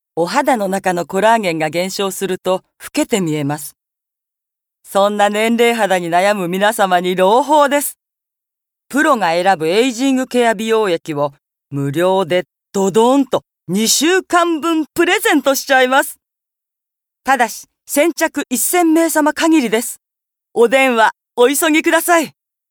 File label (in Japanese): 美容CM